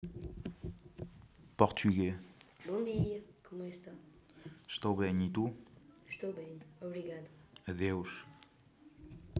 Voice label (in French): Portugais